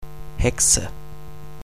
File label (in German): "Hexe", pronunciation